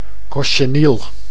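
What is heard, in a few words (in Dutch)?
"cochenille", Dutch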